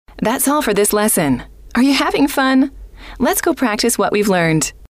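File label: "that's all for this lesson are you having fun let's", female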